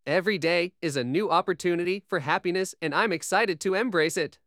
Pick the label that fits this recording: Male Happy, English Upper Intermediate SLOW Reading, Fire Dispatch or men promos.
Male Happy